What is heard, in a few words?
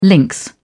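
"lynx", English, British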